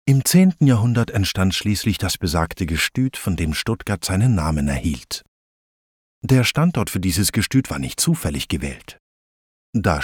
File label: german audio guides; Deutschsprecher aus Berlin